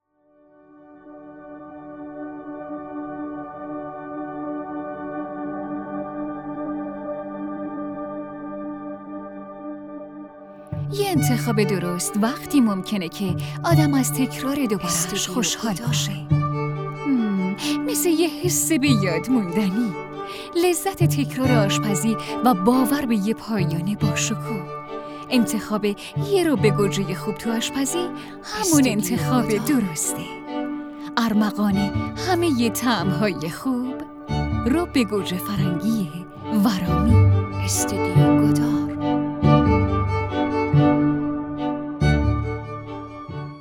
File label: commercial